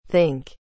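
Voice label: "think", English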